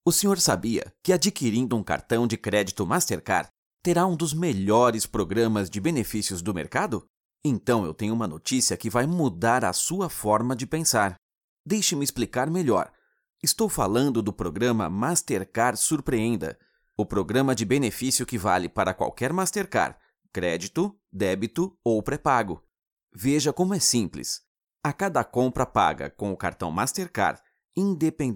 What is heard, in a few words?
Portuguese (Brazil), Male